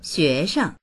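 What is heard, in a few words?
"xue2sheng", Mandarin Chinese; xuésheng; 学生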